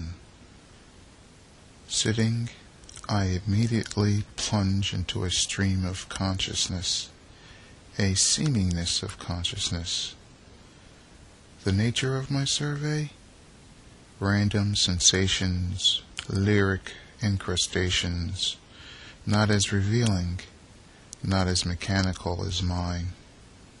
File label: Canvassing Chaos Recording